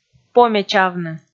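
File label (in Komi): "помечавны", Komi, female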